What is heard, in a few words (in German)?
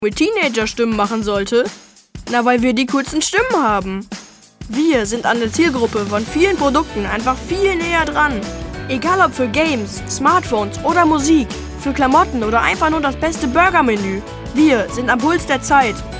Werbung